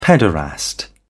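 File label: "pederast", English, British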